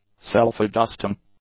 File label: "self-adjusting", English; adjectives